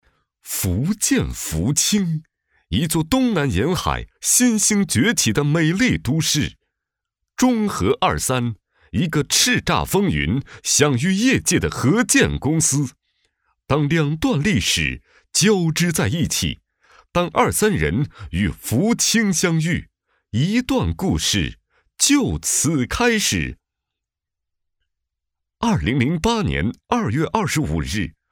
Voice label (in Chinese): 男 专题 城市宣传片; 福清; 福清城市宣传片